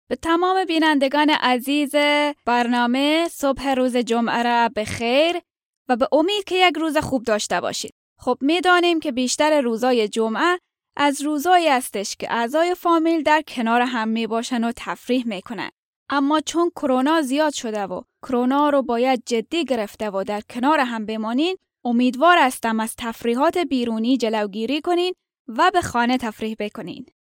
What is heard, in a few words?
Presenter Dari